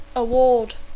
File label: "award", English